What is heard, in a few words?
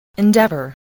"endeavour", spelling